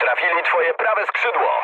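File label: right wing, mood low, Polish speech; War Thunder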